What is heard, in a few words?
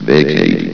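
"vacate", male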